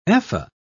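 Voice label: "ephah", English, British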